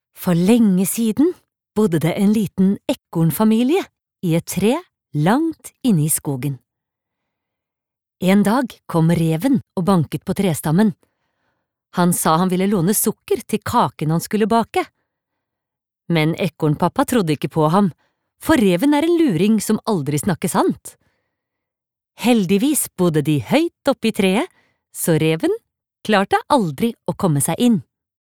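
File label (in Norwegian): Norwegian forteller, female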